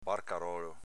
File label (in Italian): "barcarolo", dialetto veneto